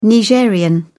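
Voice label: "nigerien", British English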